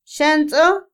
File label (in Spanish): sucio, Otomí, mujer; Percepción; INALI